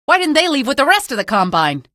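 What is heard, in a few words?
citizen alert soldier, female; vo; npc; source engine; episodic; episode 1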